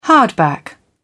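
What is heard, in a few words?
"hardback", British English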